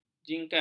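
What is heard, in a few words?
"jingkat", Malay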